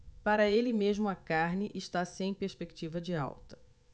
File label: PORTUGUESE BRAZIL